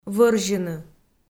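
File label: "вӧрзьыны", Komi, female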